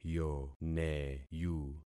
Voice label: "yoneyu", concat syllables; Affixing experiment